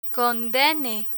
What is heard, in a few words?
"condene", Spanish; police vocabulary; learn spanish